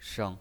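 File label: "sheng", Mandarin Chinese pinyin, neutral tone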